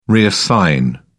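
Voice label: "reassign", British English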